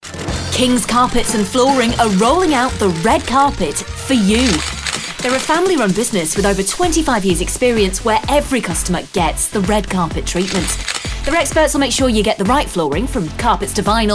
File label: Commercial